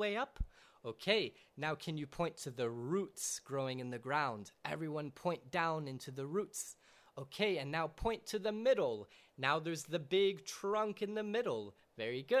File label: Magic Words Tree To Climb English; Nature